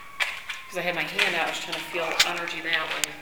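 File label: talking then sound uh; Old 6th Detroit Precinct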